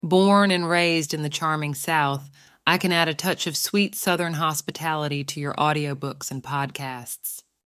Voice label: AI Generated Voice; Grace